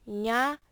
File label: "gna", it; klettres; tdeedu